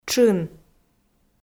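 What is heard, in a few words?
"тшын", Komi, female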